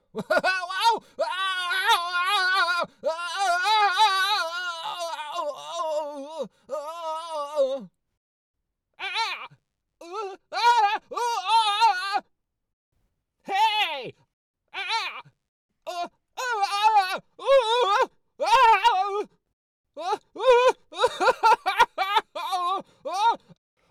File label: Cat On Face Screaming Cartoonish and Dude Bro Voices; Character Voice Demos